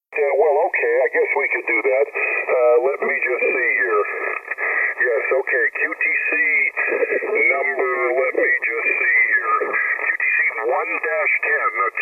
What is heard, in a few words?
ssb, beginner; Training QTCs; QTC list